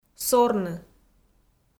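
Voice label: "сорны", Komi, female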